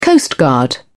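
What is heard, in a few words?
"coastguard", British English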